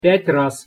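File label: "pjat raz", Russian pronunciation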